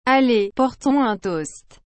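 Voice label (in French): "Allez portons un toast", French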